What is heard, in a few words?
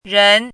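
"ren2", Mandarin Chinese syllable, pinyin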